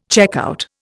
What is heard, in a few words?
"checkout", Indian